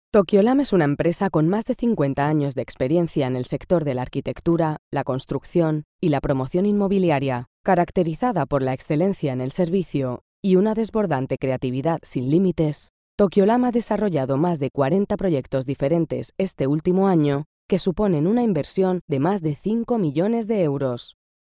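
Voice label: Spanish narration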